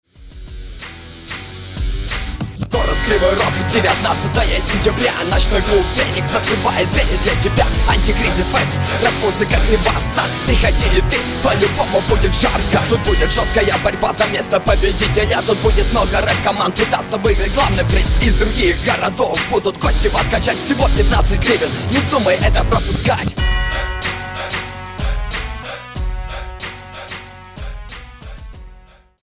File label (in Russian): reklama; antikrizis hip hop fest 09